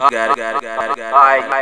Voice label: HI VOX L, Big Beat Samples; AKAI; SPEAKS KIT